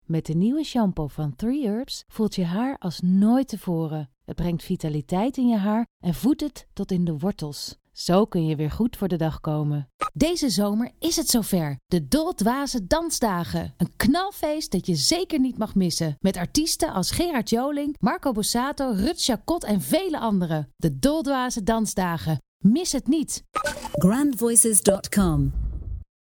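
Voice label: Dutch female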